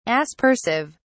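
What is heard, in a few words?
"aspersive", English, British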